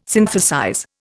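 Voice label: "synthesize", Indian English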